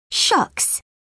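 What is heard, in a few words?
"shucks", British English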